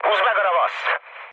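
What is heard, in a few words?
attack position, mood med, Hungarian; War Thunder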